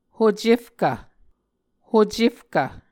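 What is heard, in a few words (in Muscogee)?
"hocefkv", Muscogee word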